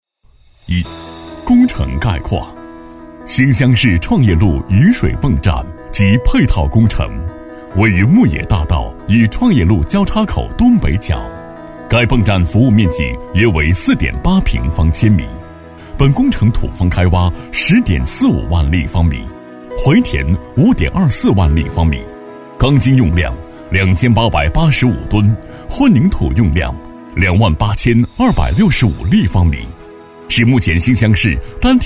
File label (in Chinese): 男 工程解说 沉稳大气亲切